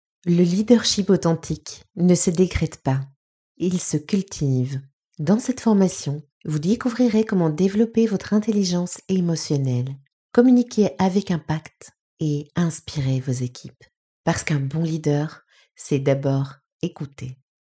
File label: E-learning Corporate FR